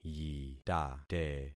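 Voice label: "yitate", concatenated syllables; Affixing experiment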